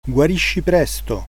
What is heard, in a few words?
get well soon, italian; Guarisci presto!